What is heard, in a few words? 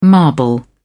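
"marble", English, British English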